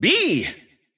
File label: intense